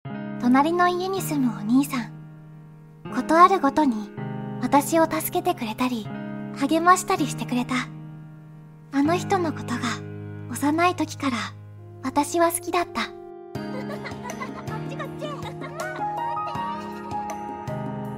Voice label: 日语 女声

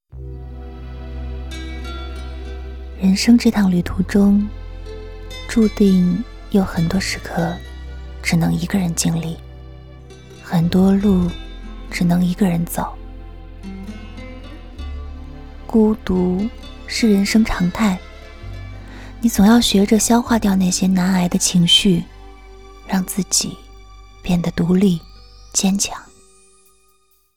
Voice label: 自白独白; 一个人过很好的建议

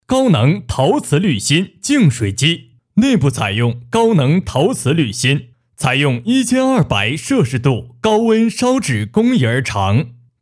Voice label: 净水机产品介绍

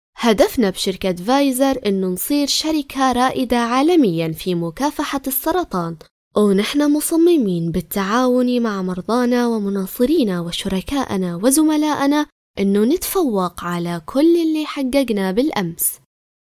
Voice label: 外籍阿拉伯语女大气激情; 阿联酋; 阿语

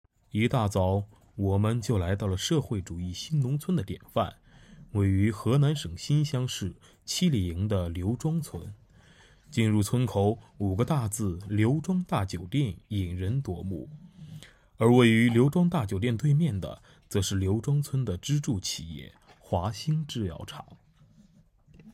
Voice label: 旁白试音

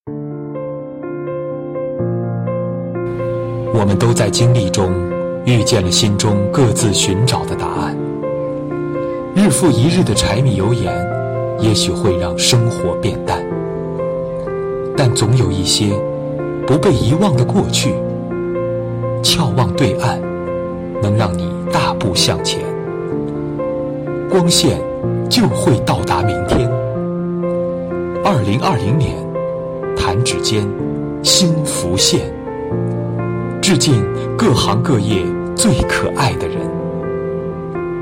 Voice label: 旁白

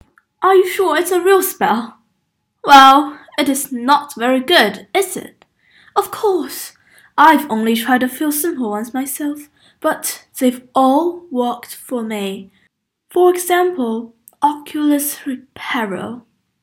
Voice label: 英语

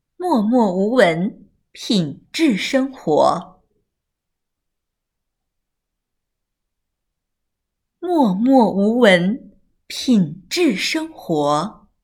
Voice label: 广告词配音

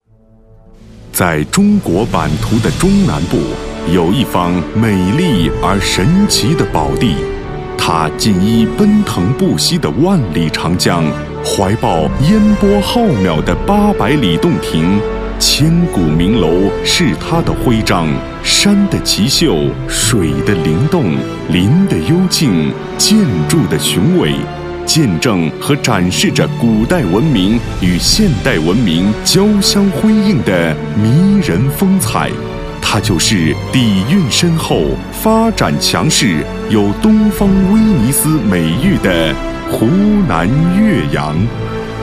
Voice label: 浑厚配音

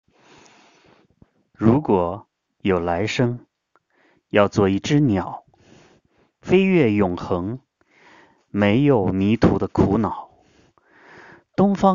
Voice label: 中文情感类

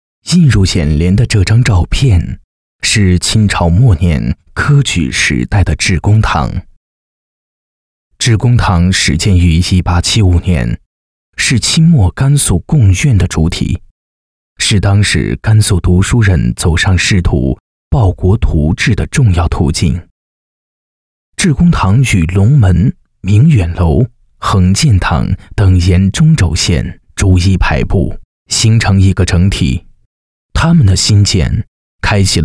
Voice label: 专题 配音; 陇原兰大二院

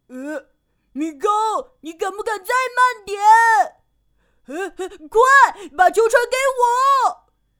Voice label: 小男

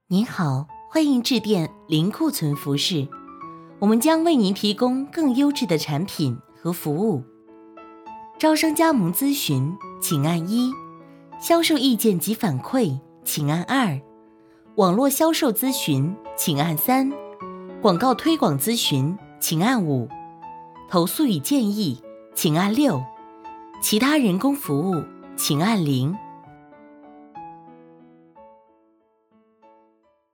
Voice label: 彩铃

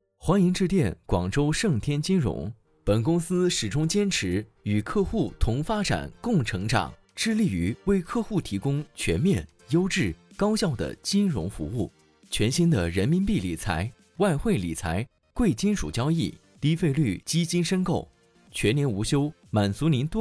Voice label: 彩铃 混音; 盛天金融乐天混音

